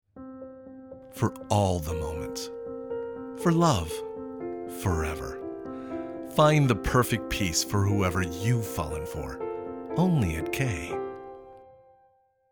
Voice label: VO Intimate